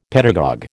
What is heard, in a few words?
"pedagogue", American